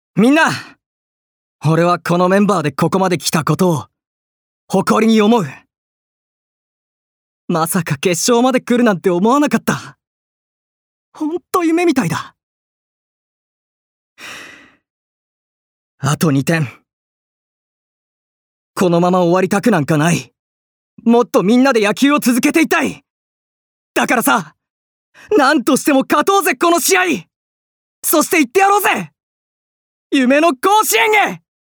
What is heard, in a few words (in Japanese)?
アニメ